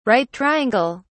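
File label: "right triangle", British English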